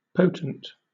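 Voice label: "potent", British English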